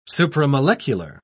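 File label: "supramolecular", British English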